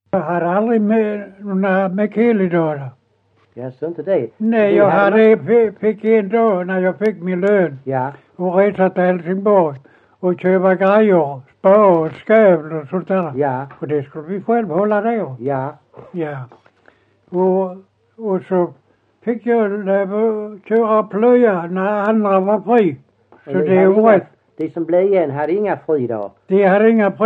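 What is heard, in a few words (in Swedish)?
Ekeby Skåne, dialekter; Folkminnen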